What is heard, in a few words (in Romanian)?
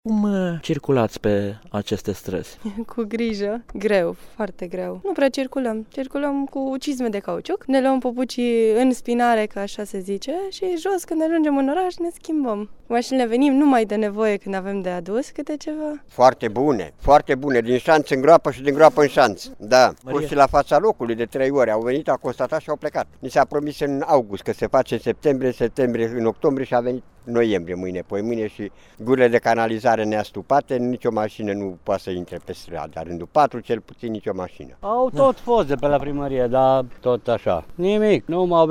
vox drumuri